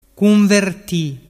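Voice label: "cunverti", Vernazzese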